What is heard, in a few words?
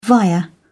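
"via", British English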